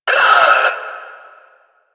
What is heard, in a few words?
Enemy Pain; Warframe; Lasrian; Lasrian Enemy C